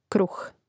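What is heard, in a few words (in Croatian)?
"kruh", Croatian; Mrežnik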